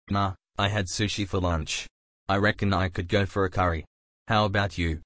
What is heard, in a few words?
male